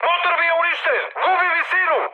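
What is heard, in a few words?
Serbian damaged engine mood high, speech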